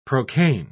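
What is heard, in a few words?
"procaine", British English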